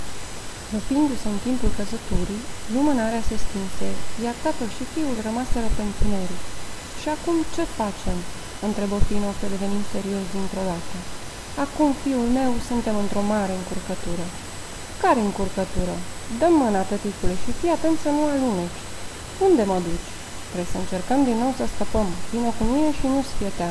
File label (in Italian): Pinocchio Romeno